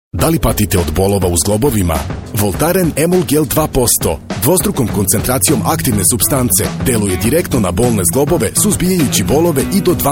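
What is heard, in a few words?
Serbian male commercial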